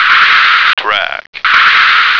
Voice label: "track", American